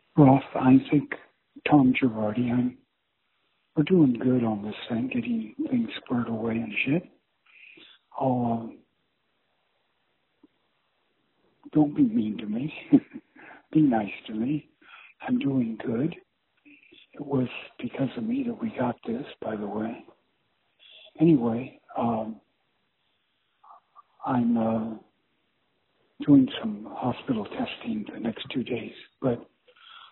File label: voicemail